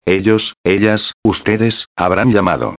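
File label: "habran llamado", Spanish; modos tempos verbais; indicativo; futuro perfecto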